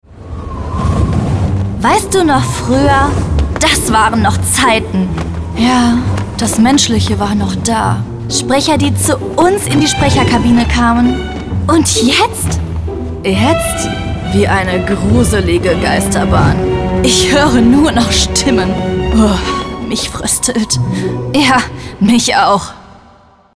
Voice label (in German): Charaktere